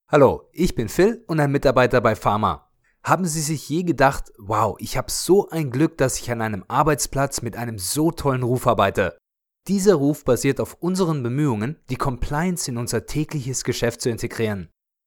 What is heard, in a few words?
conversational corporate promo